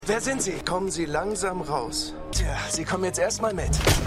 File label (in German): Soldat, Stimmproben; Revolution